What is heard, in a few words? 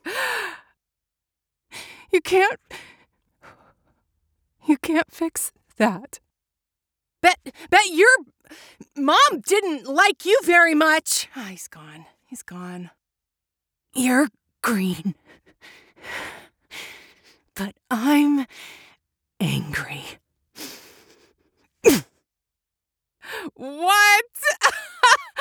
Recruit Female